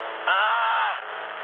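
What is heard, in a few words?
German damaged pilot, speech; War Thunder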